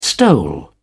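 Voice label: "stole", English, British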